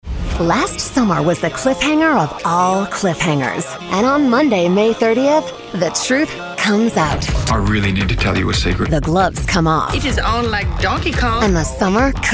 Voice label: promo, women